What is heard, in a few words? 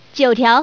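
"9 tiao", Chinese, male; 女; 欢乐麻将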